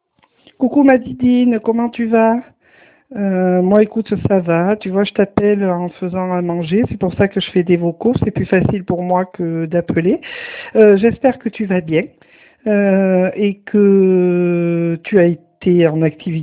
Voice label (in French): Message vocal